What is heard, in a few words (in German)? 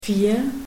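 "Vier", German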